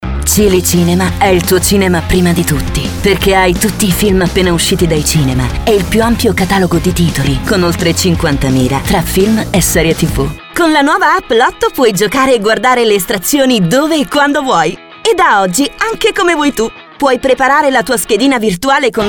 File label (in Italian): spot nazionali